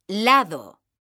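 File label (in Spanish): "LADO", female; mekanta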